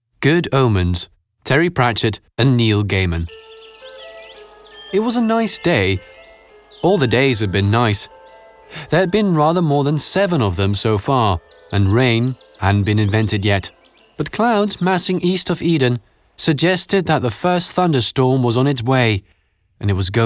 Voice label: narration english